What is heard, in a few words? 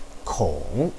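"kong", Mandarin Chinese, third tone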